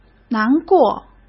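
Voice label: "难过", Mandarin Chinese; hsk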